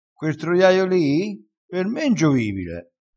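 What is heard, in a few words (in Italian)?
"ingiovibile", dialettale crespinese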